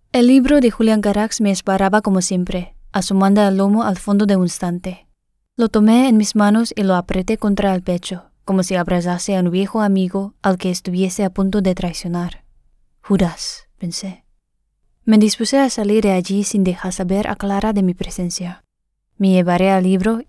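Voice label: Spanish Narration; La Sombra de Viento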